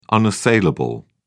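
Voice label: "unassailable", British English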